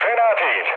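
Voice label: Turkish trick, mood low, speech; War Thunder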